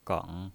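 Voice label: "geng", Mandarin Chinese, third tone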